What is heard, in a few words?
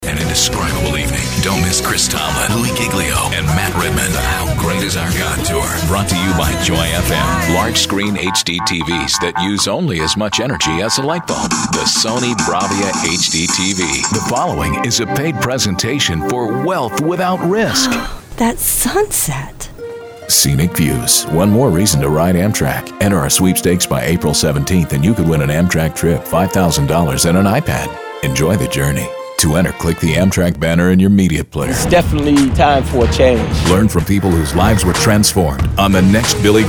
comm, male voice talent